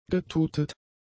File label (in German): "getutet", german; adjectives